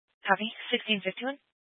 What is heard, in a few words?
Sheriff Dispatch, scanner; Mesa County